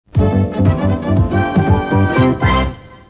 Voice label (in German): werbung